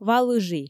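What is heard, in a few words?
Komi, female; ва лыжи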